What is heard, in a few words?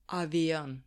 "avion", Serbian